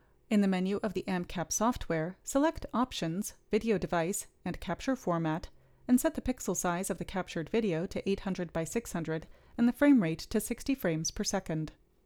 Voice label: Gong Voiceover